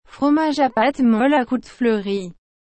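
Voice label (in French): "fromage à pâte molle à croûte fleurie", French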